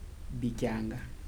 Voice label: "hours", Kibembe